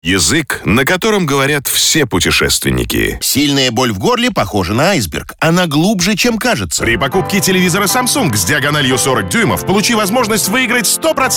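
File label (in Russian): Мужские голоса; мужской голос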